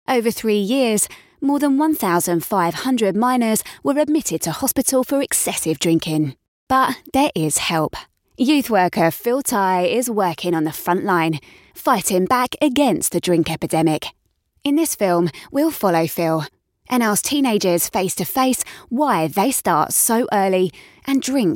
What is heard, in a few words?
documentary